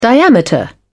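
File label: "diameter", British English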